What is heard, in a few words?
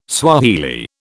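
"Swahili", British English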